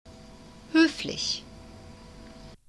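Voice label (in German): "hoeflich", German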